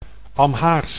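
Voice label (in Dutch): "Amhaars", Dutch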